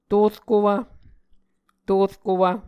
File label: "torkowv", muscogee